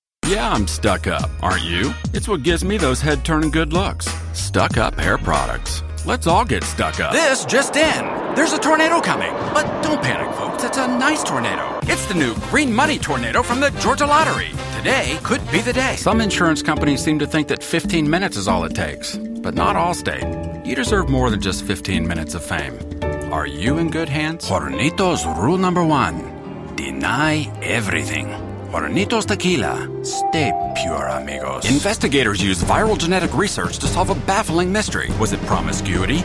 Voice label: Commercial No Slate

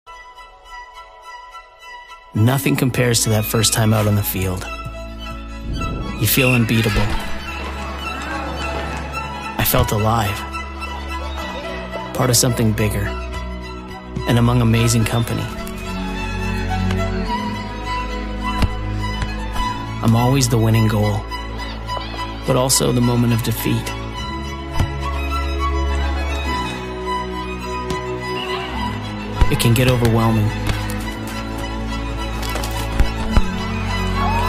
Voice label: Voiceovers; McDonald's

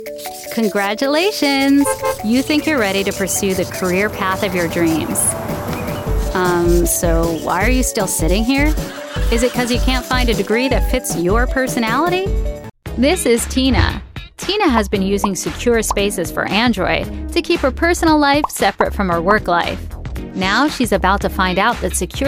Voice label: Explainers

